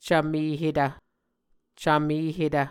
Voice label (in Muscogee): "cvmehetv", Muscogee